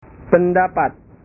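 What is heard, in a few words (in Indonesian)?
"pendapat", Indonesian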